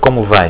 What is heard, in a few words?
how are you, portuguese